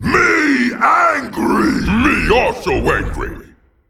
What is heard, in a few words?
Male Ettin Play